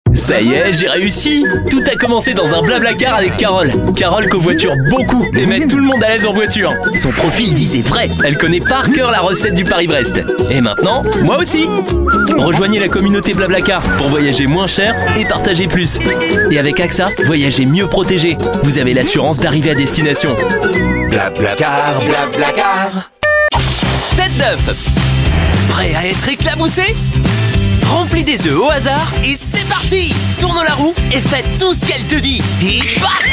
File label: french voice over male commercial